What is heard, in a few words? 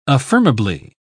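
"affirmably", English, uk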